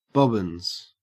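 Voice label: "bobbins", English, Australian accent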